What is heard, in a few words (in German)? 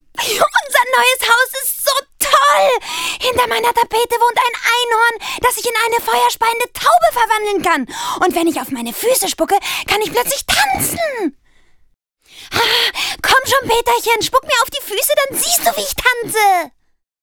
synchron Animation; peterchens mondfahrt